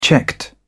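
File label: "checked", British English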